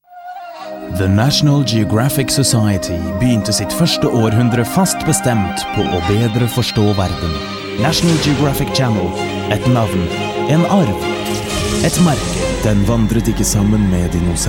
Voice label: Commercials